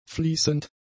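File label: "fließend", German; adjectives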